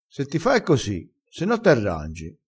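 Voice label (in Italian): se ti fa, vocabolario dialettale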